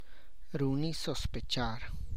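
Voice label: Tlacochahuaya Zapotec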